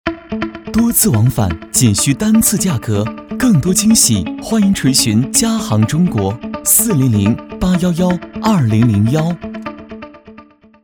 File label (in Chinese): 广告商务时尚